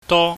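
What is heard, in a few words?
"do", Cantonese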